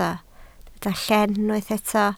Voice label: "lleen", Welsh